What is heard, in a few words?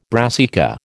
"brassica", American